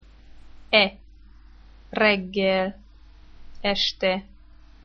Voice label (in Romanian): abc e, e ca primul "e" din "pere", în graiul maramureşean, pronunţat cu gura mai deschisă decât în limba română literară reggel (dimineaţă), este (seară); Curs online de limba maghiară.